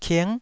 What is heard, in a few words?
"king9", taiwanese syllable